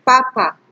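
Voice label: "papa", Nigerian Pidgin, female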